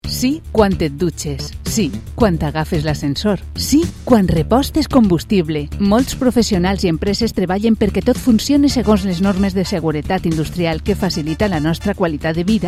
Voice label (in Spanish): spot radio valenciano